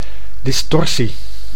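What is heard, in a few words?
"distorsie", Dutch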